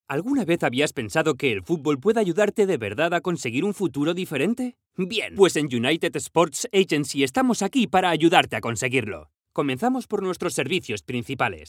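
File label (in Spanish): Video Corporativo Tono Medio Natural, voz en off; Corporate Video - Medium and Natural Tone; United Sports Agency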